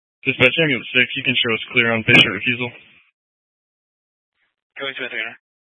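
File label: Fire Dispatch, Scanner audio recording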